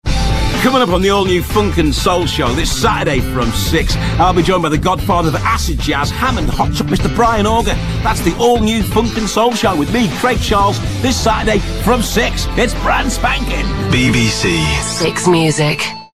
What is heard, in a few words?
trailer; 6music; BBC